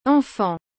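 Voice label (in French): "enfant", French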